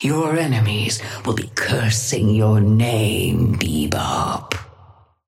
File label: Patron female ally start; bebop